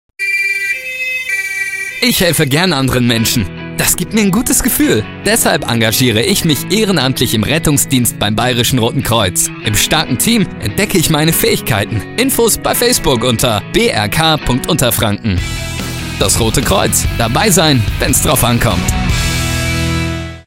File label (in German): radiospot; nachwuchs112; brk unterfranken; ehrenamt im rettungsdienst; feuerwehr